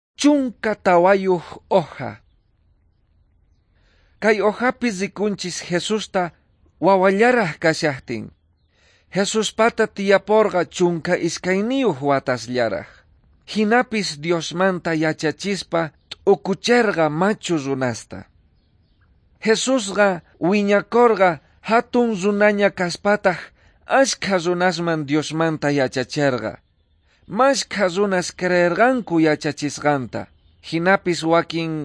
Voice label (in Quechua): quechua bolivian cochabamba; good news